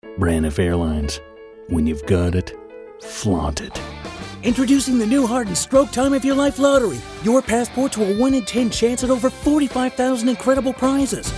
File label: VO commercial